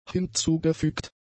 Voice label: "hinzugefügt", German; adjectives

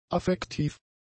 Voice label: "affektiv", german; adjectives

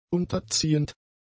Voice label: "unterziehend", German; adjectives

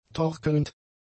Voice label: "torkelnd", German; adjectives

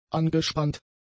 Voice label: "angespannt", German; adjectives

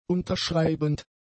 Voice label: "unterschreibend", German; adjectives

